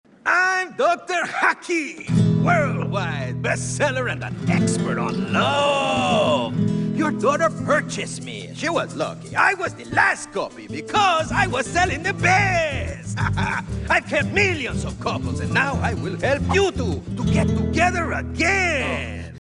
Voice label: speaking book; it takes two; dr. hakim